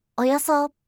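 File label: "oyoso" Japanese; kumiawase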